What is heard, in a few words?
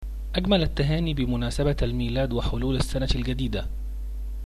christmas arabic